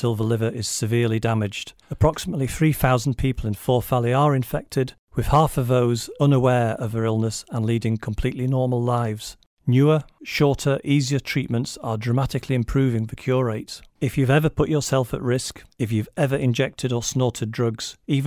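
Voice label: Hepatitis C Awareness Advert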